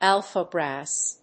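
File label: "alpha brass", English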